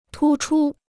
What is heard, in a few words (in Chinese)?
"突出", Chinese